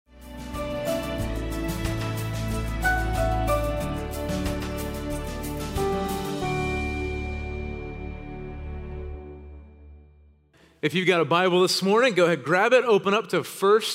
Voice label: Sermon English